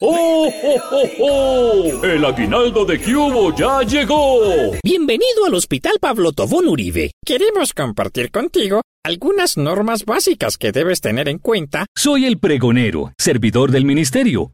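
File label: Spanish character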